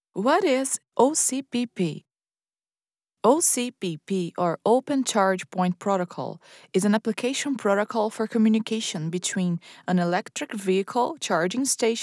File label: elearning english knowledgeable american female brazilian portuguese voice